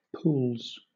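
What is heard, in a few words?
"pools", British English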